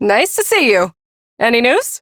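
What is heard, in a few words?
Ambient Female Brisk, Dialog; Duviri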